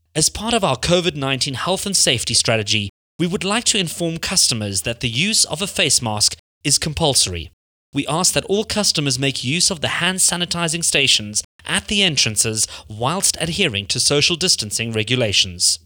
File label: PSA Health Notice; covid19